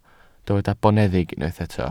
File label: "boneddig", welsh